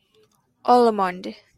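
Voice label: "almond", Japanese, female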